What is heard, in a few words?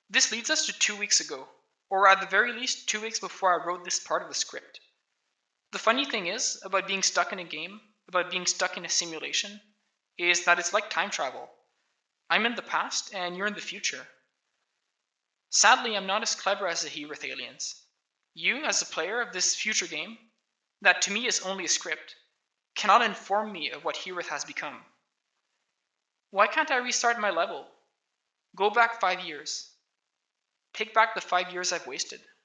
voice, narration